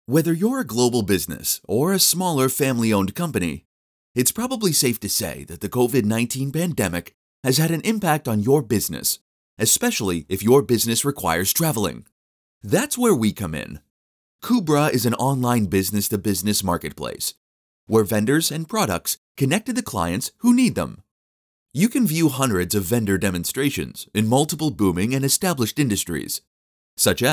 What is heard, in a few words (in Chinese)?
外籍英语男 B2B电子商务网站广告